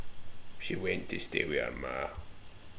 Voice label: "ma" (mother), slang